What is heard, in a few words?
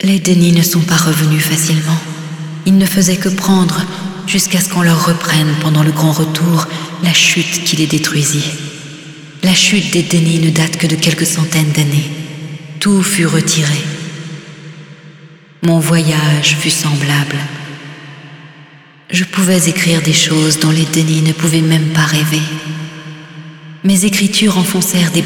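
Speech french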